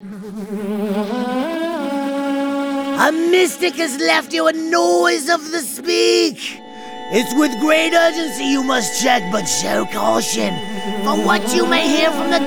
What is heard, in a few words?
Voicemail